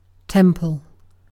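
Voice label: "temple", British English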